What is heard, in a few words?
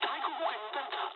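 damaged aa mood med, speech japanese; War Thunder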